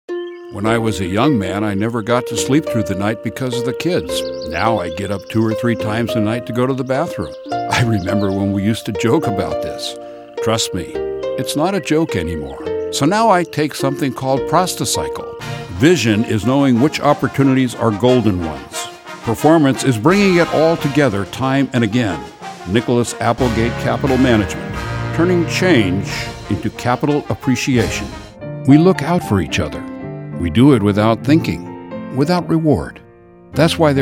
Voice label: Commercial